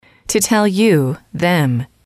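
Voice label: to tell you them, female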